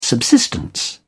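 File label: "subsistence", British English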